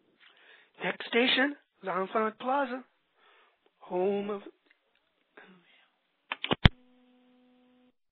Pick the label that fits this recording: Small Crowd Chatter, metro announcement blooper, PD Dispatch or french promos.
metro announcement blooper